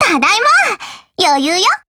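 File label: OPERATION OVER, Japanese; UMP45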